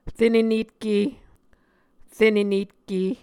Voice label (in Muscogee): "renenetke", muscogee